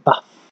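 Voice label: "baff", Nigerian Pidgin, male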